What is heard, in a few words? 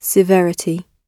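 "severity", British English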